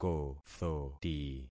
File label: "kothoti", concat syllables; Affixing experiment